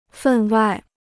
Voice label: "分外", Chinese

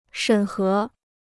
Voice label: "审核", Chinese

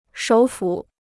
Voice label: "首府", Chinese

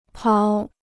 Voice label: "跑", Chinese

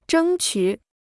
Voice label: "争取", Chinese

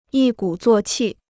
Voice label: "一鼓作气", Chinese

